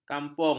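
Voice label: "kampung", Malay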